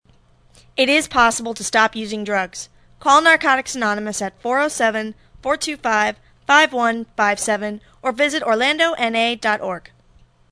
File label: Female